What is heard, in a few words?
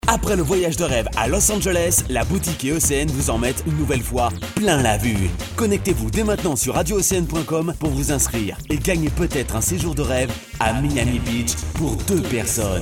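FR M commercial, French, male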